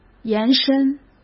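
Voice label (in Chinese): "延伸", Mandarin; chinese-flashcards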